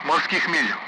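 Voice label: Speech Russian; Allied Flight; DCS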